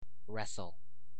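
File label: "wrestle", US English; pronunciations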